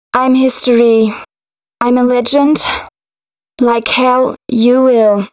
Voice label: female, spoken hooks